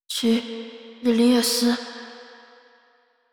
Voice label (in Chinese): 吕萍 男孩 对话